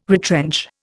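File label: "retrench", Indian English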